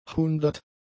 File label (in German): "hundert", German; numerals